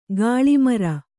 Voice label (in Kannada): "ಗಲ್ಲುಮರ", Kannada